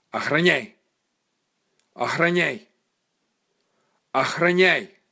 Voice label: "Ohranyai", russian dog commands